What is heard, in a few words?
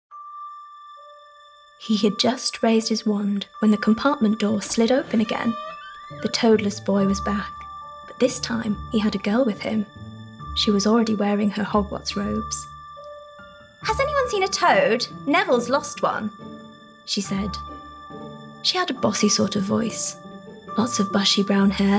Audio Book Documentary